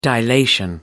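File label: "dilation", British English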